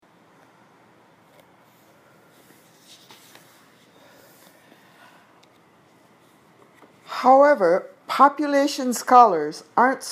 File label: Reading Drill